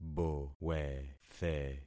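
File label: "bowethe", concatenated syllables; Affixing experiment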